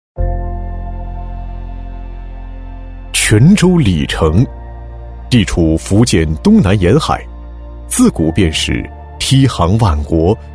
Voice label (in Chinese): 男 招商宣传 解说词; 男189-大气主流 成熟厚重; 鲤城文旅局招商片解说词